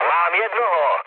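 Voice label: player killed enemy, Czech, mood low; War Thunder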